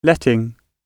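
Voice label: "letting", British English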